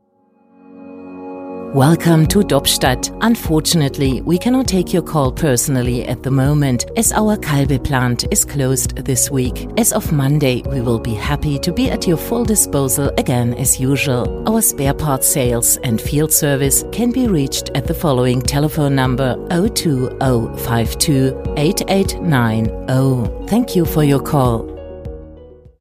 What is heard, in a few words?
German female voice English, Friendly German Female Voice Over